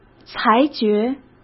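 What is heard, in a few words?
"裁决", Mandarin Chinese; chinese-flashcards; hsk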